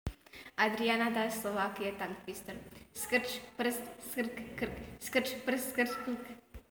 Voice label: Slovakian